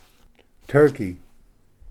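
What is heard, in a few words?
"turkey", English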